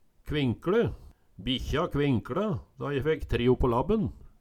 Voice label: kvinkLe, Numedalsmål

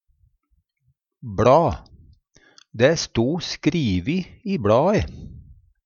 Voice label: bla, Numedalsmål; Substantiv inkjekjønn; Det stod skrivi i bLae.

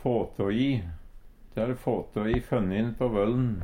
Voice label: "fåtå i", Numedalsmål; ta fyr; Uttrykk; De har fåtå i fønnin på vøllen.

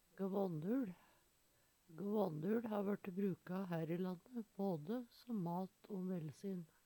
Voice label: "gvånnuL", Numedalsmål

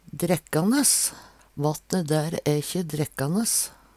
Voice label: "drekkanes", Numedalsmål; Adjektiv; Hushald, mat, drikke

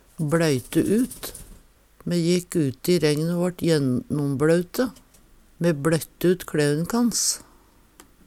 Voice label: bloyte ut, Numedalsmål

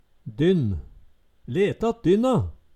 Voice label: "dynn", Numedalsmål

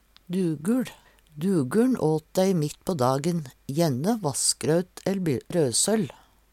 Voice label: duguL, Numedalsmål; måltid midt

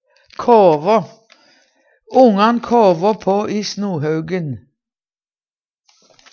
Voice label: "kåvå", Numedalsmål

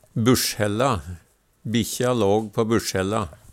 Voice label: "busjhella", Numedalsmål; Substantiv hokjønn

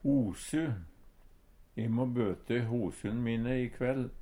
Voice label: hosu, Numedalsmål; E må bøte hosun mine i kvell.

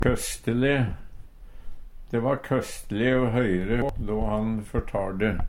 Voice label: "køstele", Numedalsmål

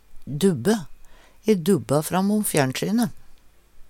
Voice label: "dubbe", Numedalsmål

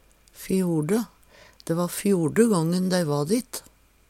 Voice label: fjorde, Numedalsmål; Dæ va fjorde gången dei va dit.; fjerde; Determinativ (mengdeord)

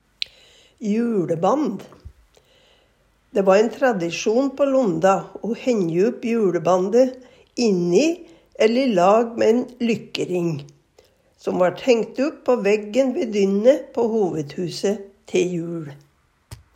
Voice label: "juLeband", Numedalsmål

